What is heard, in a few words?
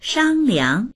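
"shang1liang", Mandarin Chinese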